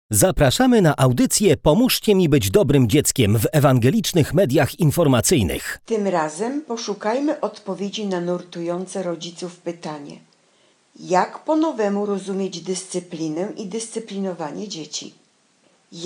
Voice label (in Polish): Zajawka z Jinglem